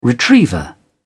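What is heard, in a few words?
"retriever", British English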